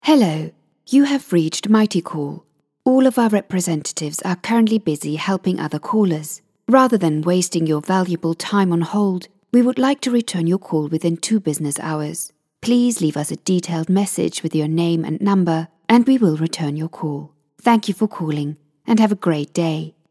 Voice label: answer phone message